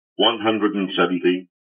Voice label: airbus 170 callout